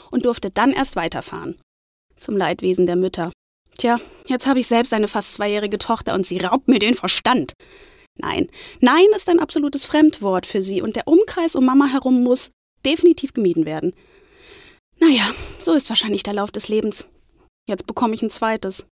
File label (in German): Kinder